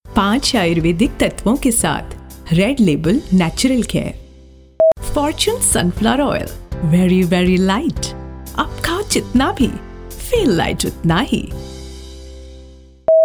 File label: TV ad Hindi